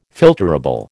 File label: "filterable", American